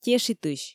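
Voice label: "тешитысь", Komi, female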